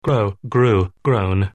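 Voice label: "grow", English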